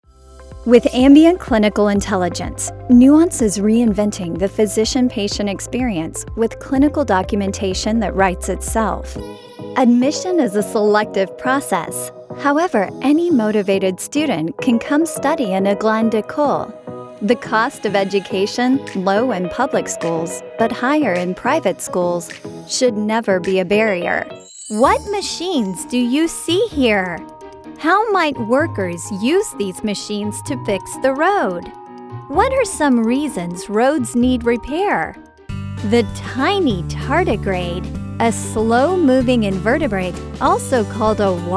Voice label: Elearning